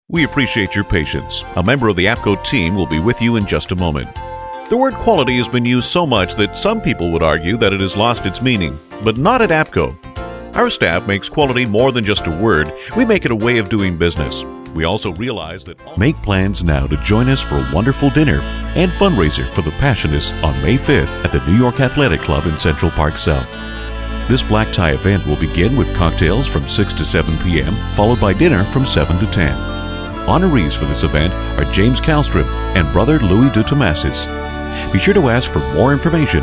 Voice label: On Hold